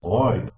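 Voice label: "woid", Proto-Indo-European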